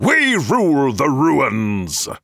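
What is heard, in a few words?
voice eldritch channelers taunt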